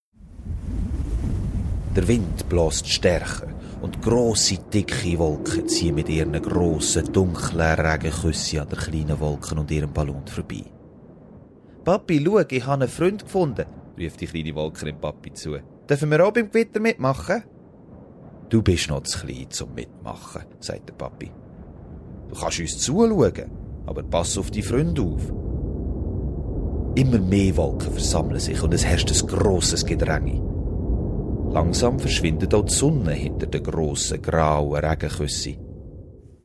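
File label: Swiss German; Male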